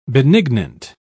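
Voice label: "benignant", English, British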